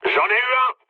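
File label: player killed enemy, mood low, French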